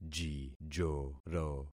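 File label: "jijoro", concatenated syllables; Affixing experiment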